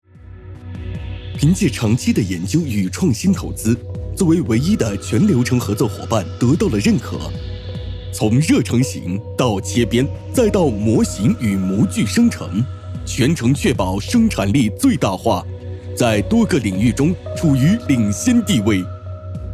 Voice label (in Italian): Cinese